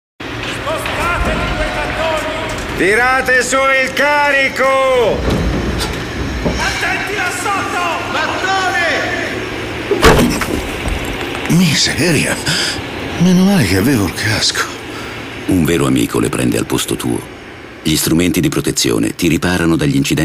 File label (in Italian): Spot Radio; Io Lavoro Sicuro